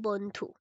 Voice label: Female